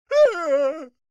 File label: Emote Surprise Fisherman